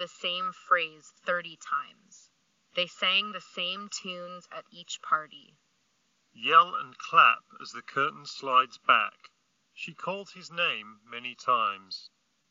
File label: Bose Quietcomfort Earbuds 2 Ideal microphone sample